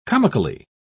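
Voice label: "comically", British English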